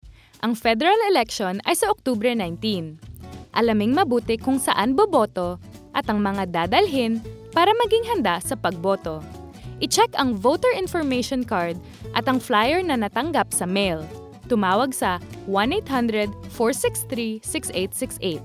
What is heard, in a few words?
tagalog; ELECTION DAY